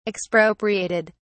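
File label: "expropriated", British English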